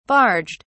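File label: "barged", British English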